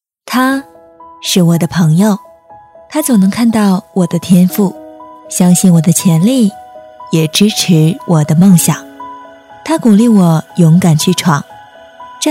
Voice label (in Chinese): 女 旁白